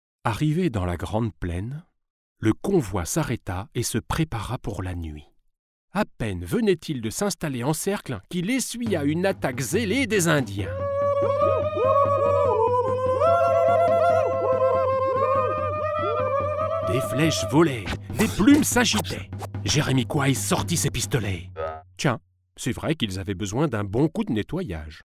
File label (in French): voix off conte